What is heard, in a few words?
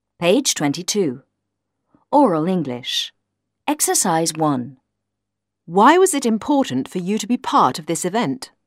oral english